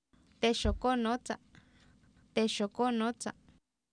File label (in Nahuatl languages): "teexokOonOotsa", Oapan, female; Oapan minimal pairs 1998